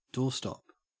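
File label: "doorstop", Australian English; pronunciations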